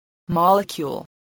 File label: "molecule", voice, spelling